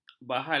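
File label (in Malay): "bahalan", Malay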